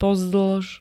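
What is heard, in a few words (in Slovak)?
"pozdĺž", Slovak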